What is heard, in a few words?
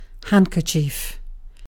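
"handkerchief", British English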